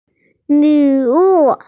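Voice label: "gift", Mandarin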